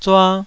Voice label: "zua2", taiwanese

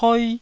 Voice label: "poi1", taiwanese

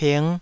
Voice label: "ping5", Taiwanese

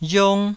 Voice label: "riong1", taiwanese

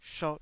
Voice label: "shot2", Taiwanese

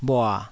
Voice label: "bhua3", taiwanese syllables; 台語音節發音檔案

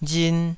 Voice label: "rin2", Taiwanese

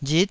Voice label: "rit9", taiwanese syllable

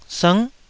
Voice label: "sngh9", Taiwanese

